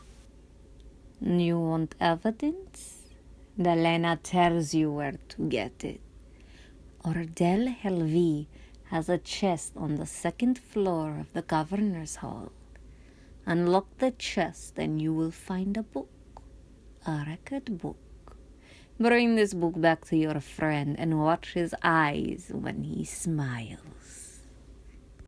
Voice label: female khajiit, khajiit voice auditions